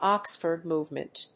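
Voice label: "Oxford movement", English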